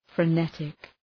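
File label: "frenetic", English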